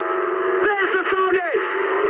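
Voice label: English near waypoint mood high; War Thunder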